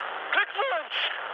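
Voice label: German player killed enemy mood high, speech; War Thunder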